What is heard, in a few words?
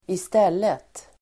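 "i stället", Swedish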